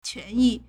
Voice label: "quan2 yi4", Chinese